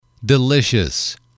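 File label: "delicious", English, male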